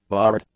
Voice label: "barred", English; adjectives